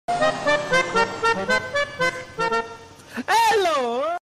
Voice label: funny meme hello sound effect